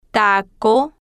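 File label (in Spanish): "taco", Spanish, female, slow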